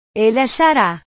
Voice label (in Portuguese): "ele achara", portugues; verbos